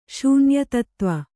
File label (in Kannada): "ಶೂನ್ಯತತ್ತ್ವ", Kannada